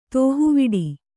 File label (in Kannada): "ದೇಹವಿಡು", Kannada